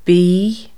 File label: Irish be imp